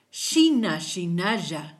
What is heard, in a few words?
fine, kichwa